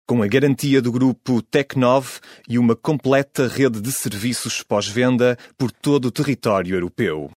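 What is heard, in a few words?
portuguese male commercial; voice demos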